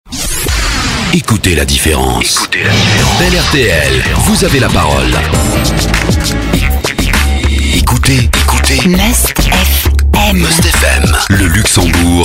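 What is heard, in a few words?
station voice, French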